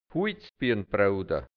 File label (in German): "Huizbianbrouder", Hianzisch Mundart